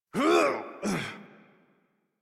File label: Horse Stop Adult; Warframe; Duviri